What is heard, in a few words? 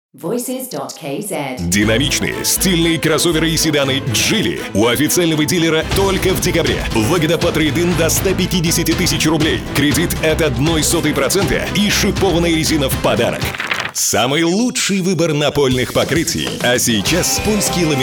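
russian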